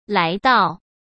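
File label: "来到", Mandarin Chinese; hsk; chinese-flashcards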